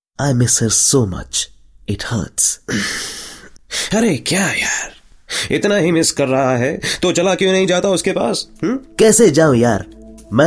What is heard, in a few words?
radio